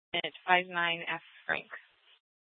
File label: Scottsdale PD Sth Dispatch, scanner